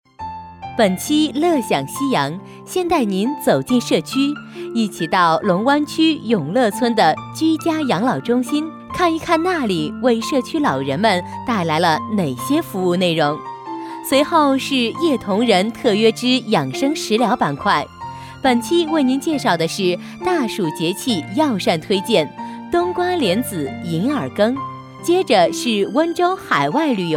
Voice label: 女 节目引导

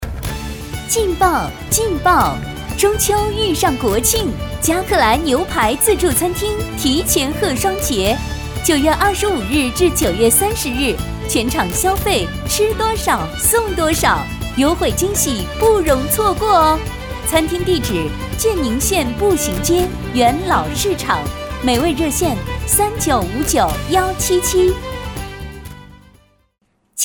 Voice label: 女 餐饮（激情）

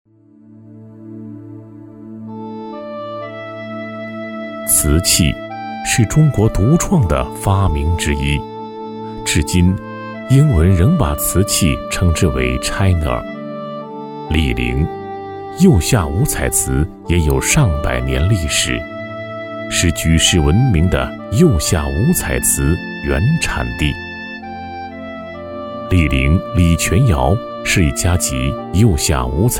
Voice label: 男 感情浑厚; 醴泉窑

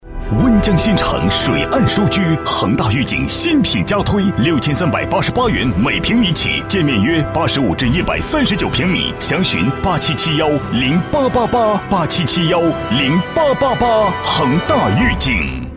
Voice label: 男 浑厚; 恒大御景

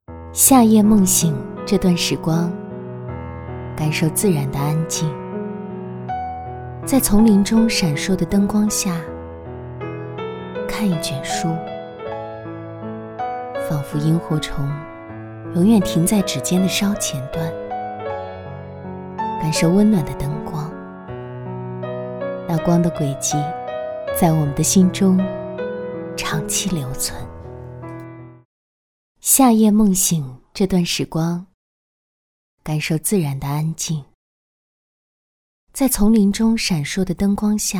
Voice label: 女 夏夜梦醒（温情）

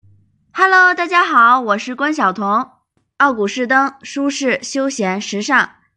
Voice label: 女36 模仿; 模仿关晓彤

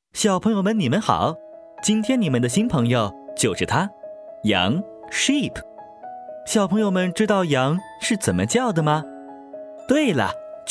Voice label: 男 羊咩

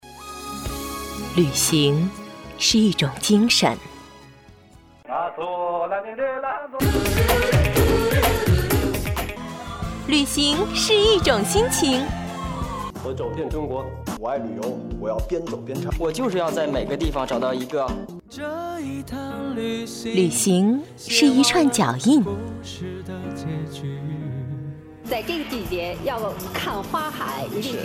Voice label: 女 片花

